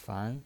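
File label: "fan" Mandarin Chinese, second tone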